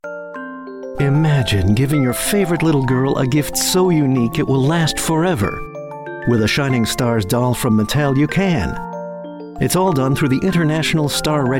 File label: Commercials